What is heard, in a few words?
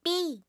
"B", alphabet